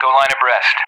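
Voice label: "go line abreast", English; DCS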